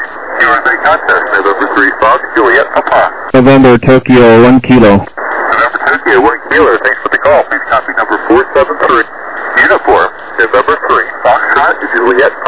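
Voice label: lower sideband 7MHz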